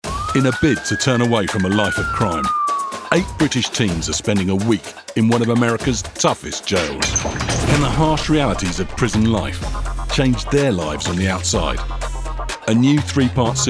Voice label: Continuity